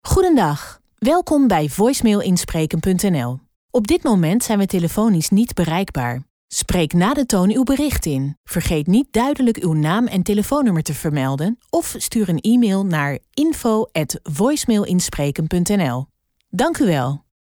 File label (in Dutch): Female Zakelijk, voicemail